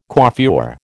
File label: "coiffure", American